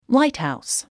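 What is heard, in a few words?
"lighthouse", English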